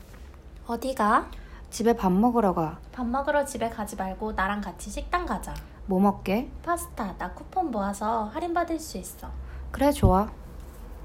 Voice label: conversation